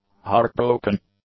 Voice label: "heartbroken", English; adjectives